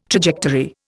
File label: "trajectory", Indian